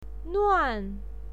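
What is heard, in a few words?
"nuan", Mandarin Chinese, fourth tone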